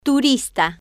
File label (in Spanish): "turista", Spanish